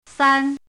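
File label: "san1", Mandarin Chinese syllable, pinyin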